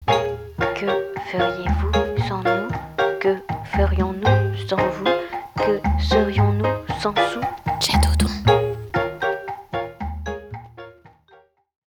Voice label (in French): ambiance Poesie